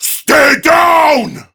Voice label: Prime Grineer Male; Battle Barks; en; Tau